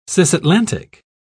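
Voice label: "cisatlantic", British English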